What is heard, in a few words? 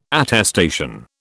"attestation", British